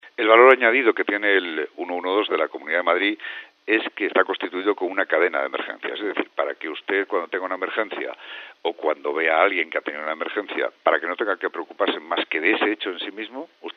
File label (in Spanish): noticias